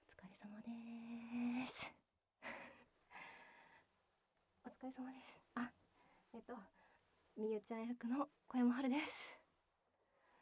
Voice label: フリートーク

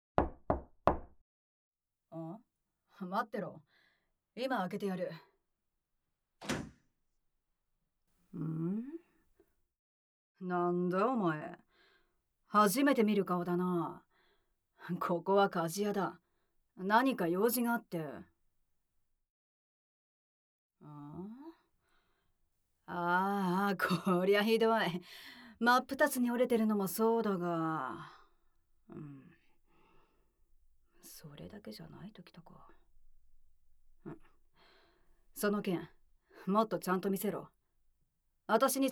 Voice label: 序章, ASMR

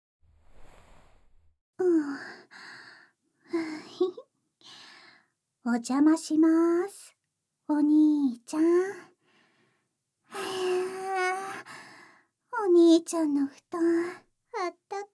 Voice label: ラブラブ目覚ましボイス; シオンのラブラブ目覚ましボイス♪

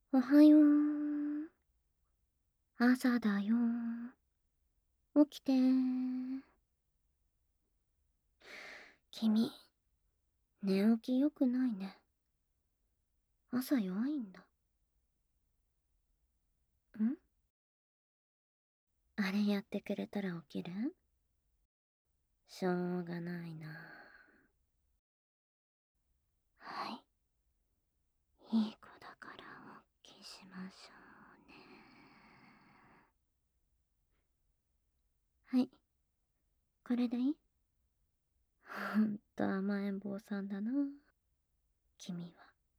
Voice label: おはようパート, アラーム音声

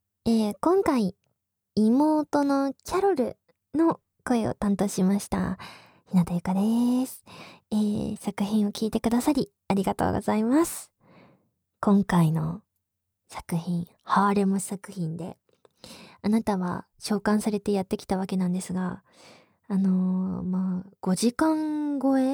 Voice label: フリートーク

